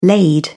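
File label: "laid", British English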